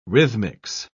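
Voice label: "rhythmics", British English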